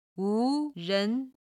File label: "wu2 ren2", chinese